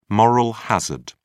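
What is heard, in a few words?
"moral hazard", British English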